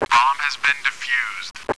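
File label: bomb defused, announcer; vo; Counter Strike Model Pack; CS2; cs2 classic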